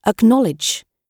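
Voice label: "acknowledge", British English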